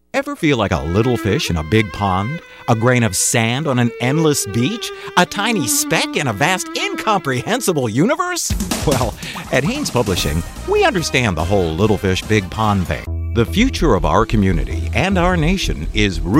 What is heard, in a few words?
radio